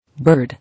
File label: "Bird", English